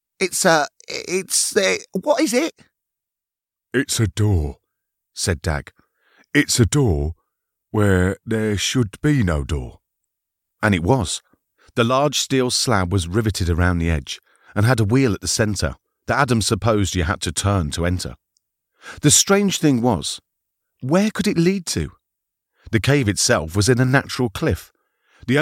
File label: audiobook, Persuasive Deep Cool Voice